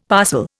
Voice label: "parcel", Indian; Dictionary

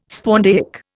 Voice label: "spondaic", Indian

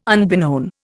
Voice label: "unbeknown", Indian

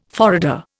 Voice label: "forrader", Indian

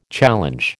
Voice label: "challenge", American

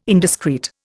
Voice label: "indiscreet", Indian

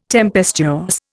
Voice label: "tempestuous", Indian

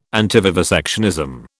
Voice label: "antivivisectionism", British

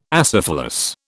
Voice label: "acephalous", British

